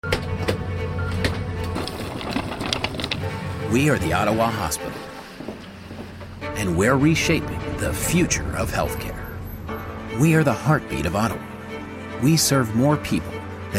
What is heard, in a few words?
Medical VO